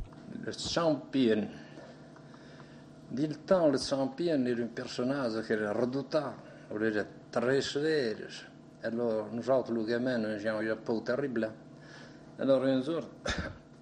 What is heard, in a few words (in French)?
Le garde-champetre patois